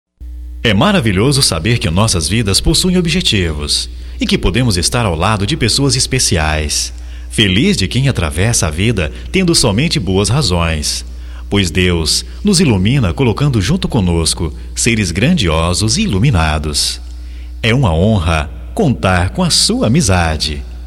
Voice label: AMIZADE GERAL M, Só Voz, masc; Telemensagens; Telemensagens 2017

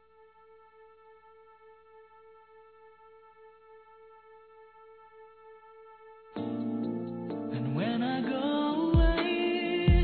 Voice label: Conquista Romantica Suave Feminino; Telemensagens; Conquistas Cantadas paqueras; Telemensagens 2017

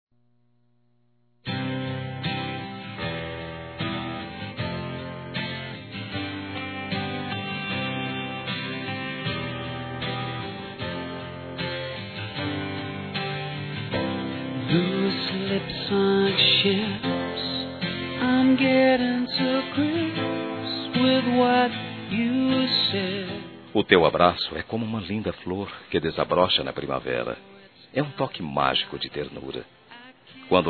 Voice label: Esposa Masculino, Telemensagens Românticas

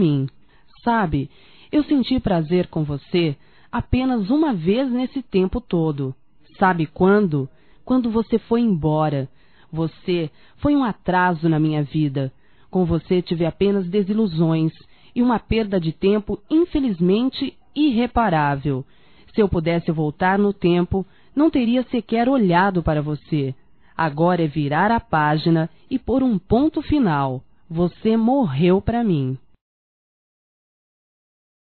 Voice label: Xingando Ex. Marido Feminino; Telemensagens 2017

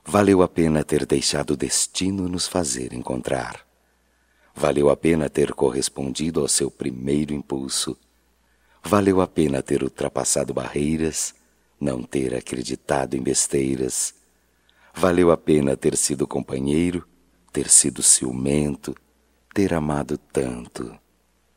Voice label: Aniversário Romântico Masculino, Telemensagens

